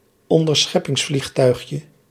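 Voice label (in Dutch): "onderscheppingsvliegtuigje", Dutch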